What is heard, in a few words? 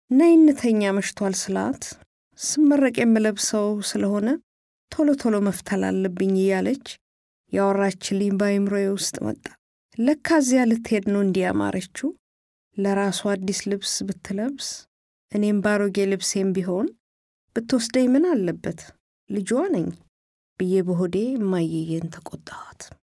female amharic voice over narrative